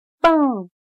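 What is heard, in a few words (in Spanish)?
"蹦", chino mandarin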